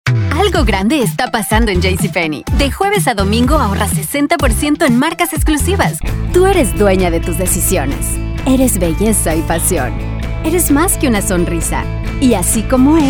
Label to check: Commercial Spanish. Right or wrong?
Right